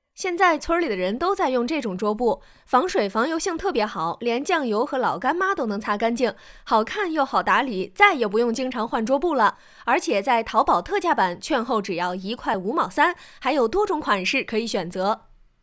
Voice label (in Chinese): 营销试音